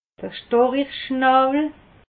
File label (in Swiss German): "storicheschnawwel", alsace